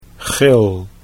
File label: "gil", Dutch